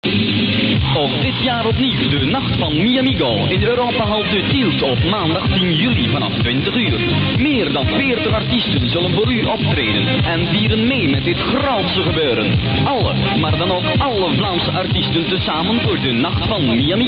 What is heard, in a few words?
commercial